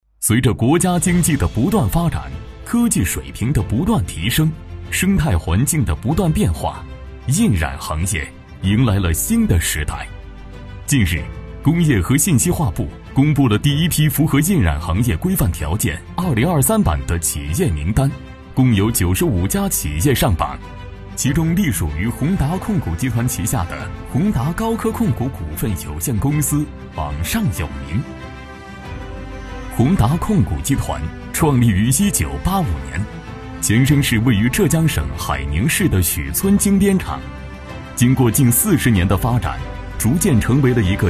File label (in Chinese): 男 党政